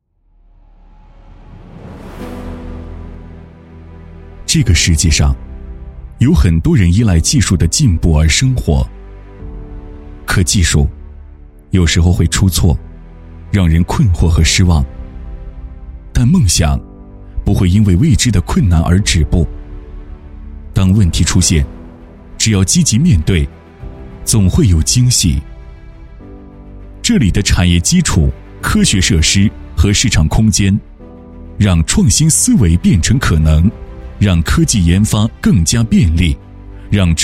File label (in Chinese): 男 走心